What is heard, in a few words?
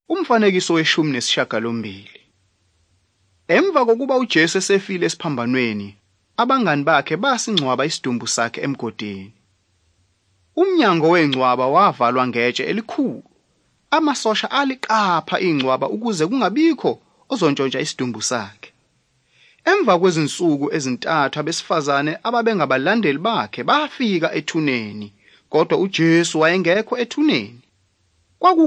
zulu bible; good news